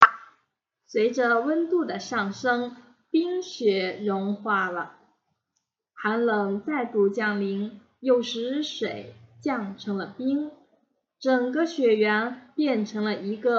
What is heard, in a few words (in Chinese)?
动物纪录片北极熊